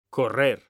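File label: "CORRER", Spanish; mekanta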